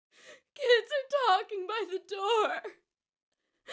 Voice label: sad